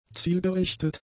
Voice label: "zielgerichtet", German; adjectives